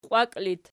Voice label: "qiwaki", Archi